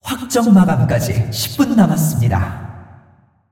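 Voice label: announcer female tournaments lockin 10min, hu_hu; ko kr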